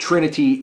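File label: "trinity", American English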